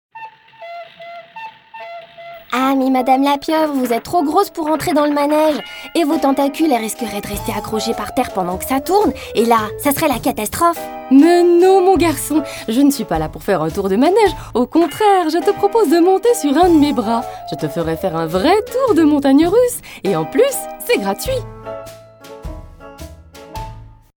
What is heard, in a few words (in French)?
2 Personnages Cartoon